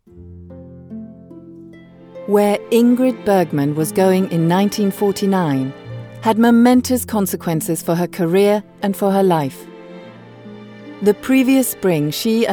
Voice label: female danish english with undetectable accent compilation; devine voices